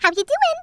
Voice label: "hello", Brooklyn; Worms Armageddon

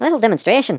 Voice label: "watch this", Irish; Worms Armageddon

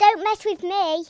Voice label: fireball, Speech Kidz; Worms Armageddon

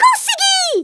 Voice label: "FATALITY", Portuguese; Worms Armageddon

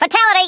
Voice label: "FATALITY", Australian; Worms Armageddon

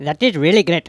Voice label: "excellent", Speech; Worms Armageddon; The Raj

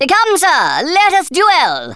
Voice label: come on then, Speech Thespian; Worms Armageddon

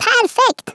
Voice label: "perfect", Swedish

